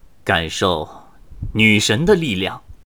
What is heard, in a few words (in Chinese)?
回合开始, BattleCry, 年轻的修士; ycrpg